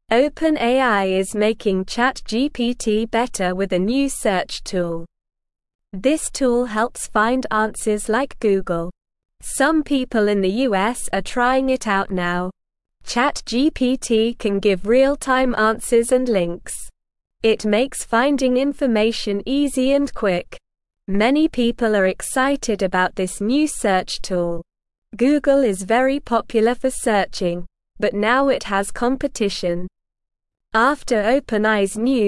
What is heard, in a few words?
English Beginner SLOW Reading; ChatGPT Gets New Tool for Finding Answers Fast